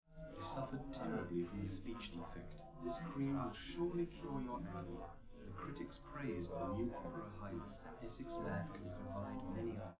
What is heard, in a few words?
male, live rec; SiSEC11; underdetermined